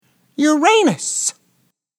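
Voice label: "Uranus", English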